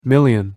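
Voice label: "million", English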